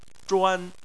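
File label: "zhuan", Mandarin Chinese, first tone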